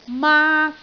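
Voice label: "maak1", Cantonese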